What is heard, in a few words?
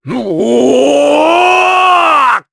Vox Casting jp; kingsraid